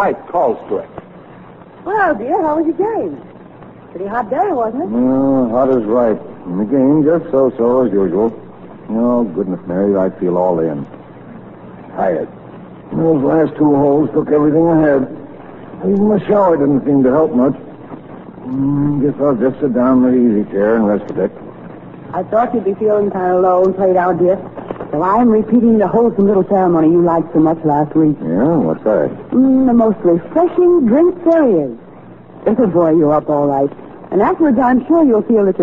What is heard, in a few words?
Commercials Maxwell House Coffee